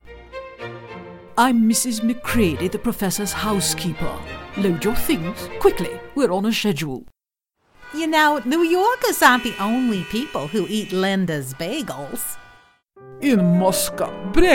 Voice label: accents

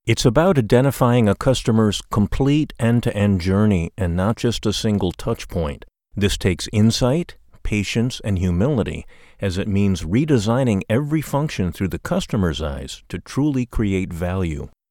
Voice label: E-LEARNING CUSTOMER SERVICE

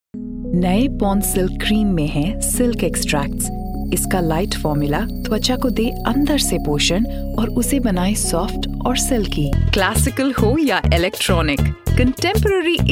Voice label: Hindi

